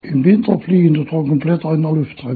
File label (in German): thuringisch